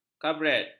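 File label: "kabaret", Malay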